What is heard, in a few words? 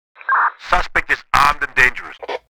Police radio chatter suspect is armed and dangerous